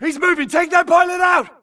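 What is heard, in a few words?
grunt target Player Moving Mid; imc; Titanfall 2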